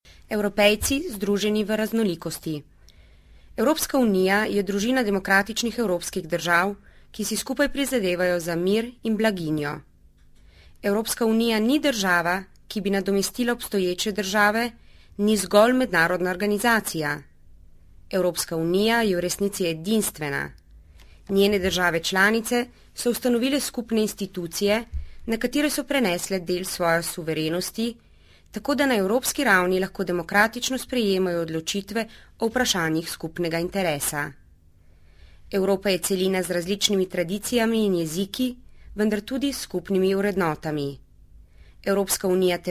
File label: speech sl